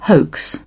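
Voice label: "hive", British English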